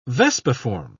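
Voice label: "vespiform", British English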